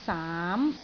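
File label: "saam2", Cantonese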